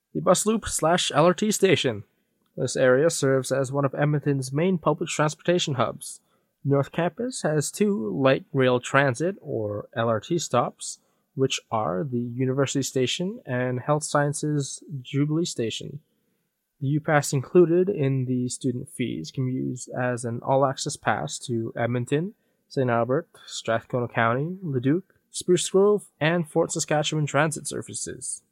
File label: Bus Loop LRT Station English; NSO Tour